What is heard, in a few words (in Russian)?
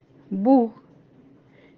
Край эвенский язык